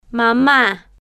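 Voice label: "mama", Spanish, slow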